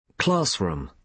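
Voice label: "classroom", British English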